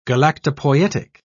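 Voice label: "galactopoietic", British English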